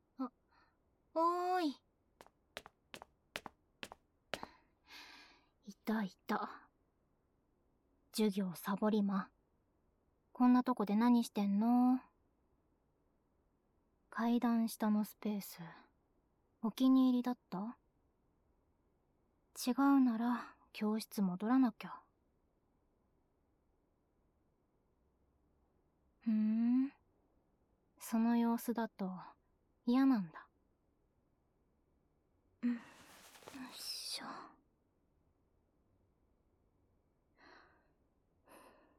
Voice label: 耳舐め手コキペア

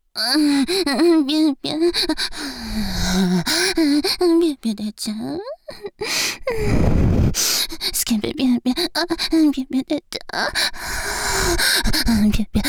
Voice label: 右 淫語連呼; ギャルフェラッ!!学校は援交ギャル風俗店ッ!!

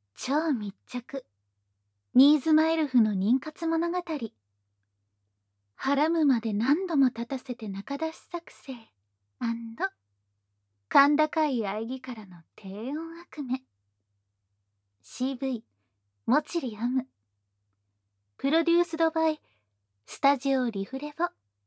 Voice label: タイトルコール, ASMR

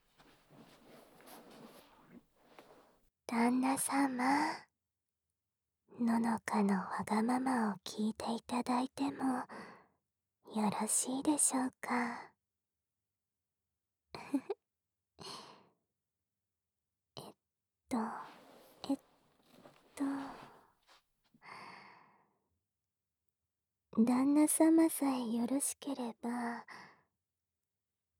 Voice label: ふわふわ甘やかし添い寝（効果音あり）; 乃々佳のふわふわ甘やかし添い寝

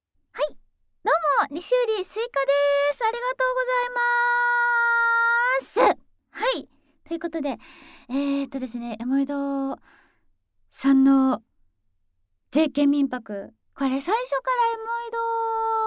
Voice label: フリートーク

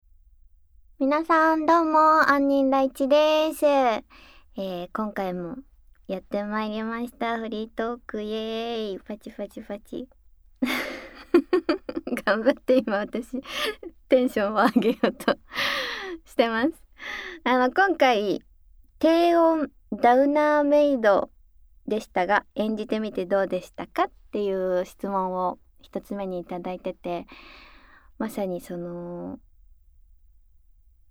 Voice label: フリートーク音声

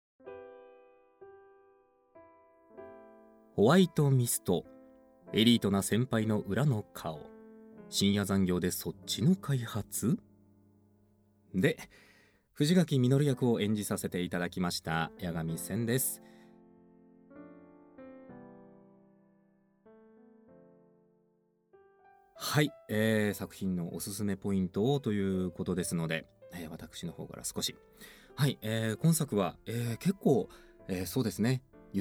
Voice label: 予告告知トーク; エリートな先輩の裏の顔～深夜残業でそっちの開発!?～

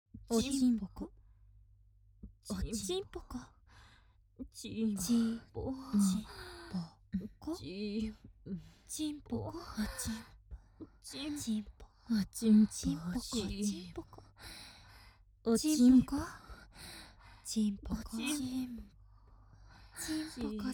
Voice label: おちんぽ淫語, ASMR; オチンポおねだり～即ヌキ特化チン媚びバイノーラル～

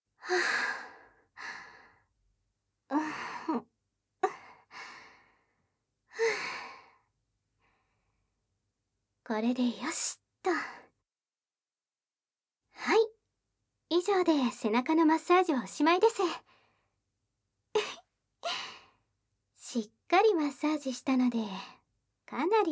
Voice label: 人気セラピストの快楽マッサージ 効果音なし, ASMR; M性感の人気メンエス嬢; じっくり焦らしプレイ; 寸止め

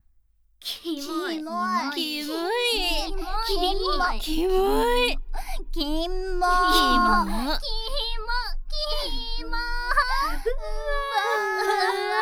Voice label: 罵倒 ASMR 抜粋; 【JKリンチ】; 昇天屈従マゾハーレム

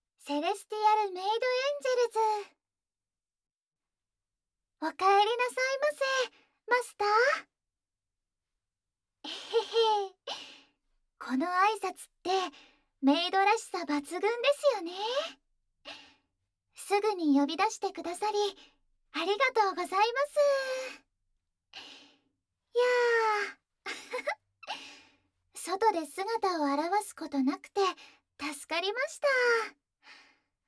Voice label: 仕事で疲れたマスターの顔をマッサージします。SEなし, ASMR

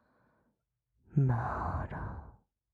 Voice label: 【左】マラ, ASMR; 淫語

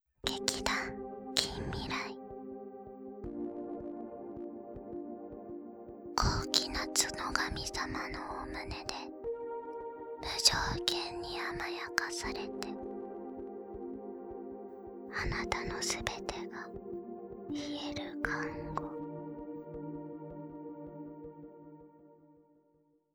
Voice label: タイトルコール; 高貴なツノガミ様のお胸で無条件に甘やかされてあなたの全てが癒える看護

